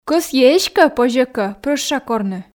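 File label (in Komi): кӧсйи эськӧ позьӧ кӧ прӧща корны, Komi, female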